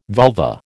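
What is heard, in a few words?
"vulva", American English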